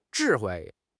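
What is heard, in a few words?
"zhi4 hui4", Chinese; 汉语口语新时代-初级下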